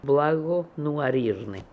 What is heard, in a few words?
"Blagonooarirnian", Beelzebub pronounciations; Fourth Way; RUS